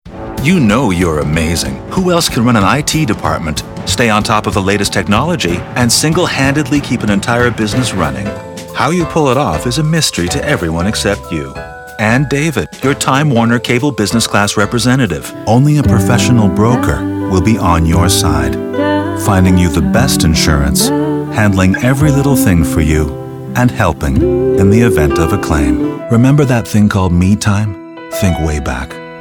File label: commercial; TaDa